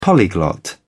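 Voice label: "polyglot", British English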